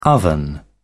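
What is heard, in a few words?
"oven", British English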